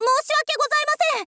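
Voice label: moushiwake gozamasen, Japanese, girl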